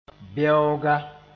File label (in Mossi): "bɛooga", moore; stump